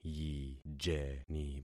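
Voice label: "yijeni", concatenated syllables; Affixing experiment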